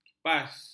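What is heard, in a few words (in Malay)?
"kipas", Malay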